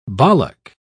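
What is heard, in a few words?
"bollock", British English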